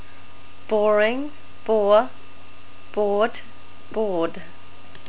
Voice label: boring, NZ English